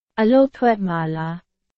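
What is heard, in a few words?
Burmese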